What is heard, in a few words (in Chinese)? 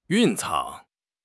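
"蕴藏", Chinese; HSK6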